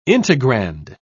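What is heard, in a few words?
"integrand", British English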